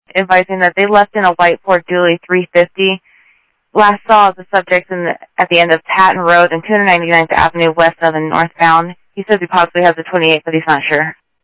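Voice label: Sheriff West County, scanner audio trunk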